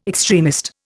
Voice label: "extremist", Indian

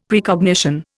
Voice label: "Precognition", Indian; Dictionary

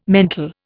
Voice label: "mental", Indian

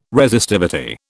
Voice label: "Resistivity", British

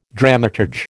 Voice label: "dramaturge", American

